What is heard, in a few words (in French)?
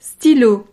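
"stylo", French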